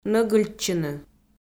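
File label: "нӧгыльтчыны", Komi, female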